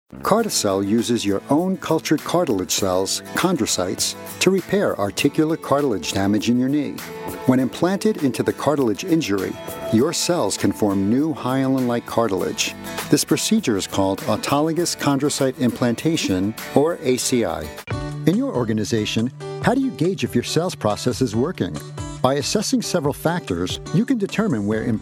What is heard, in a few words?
Business Explainer Video